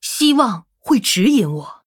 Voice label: Female Human Start; zh

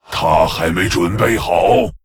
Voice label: Male Lich ERROR SUMMON SICKNESS; zh

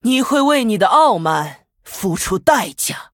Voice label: Female Human Start; zh

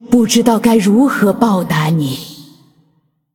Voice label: Female Ghost Thanks, zh

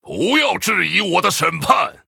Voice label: Male Human Picked, zh

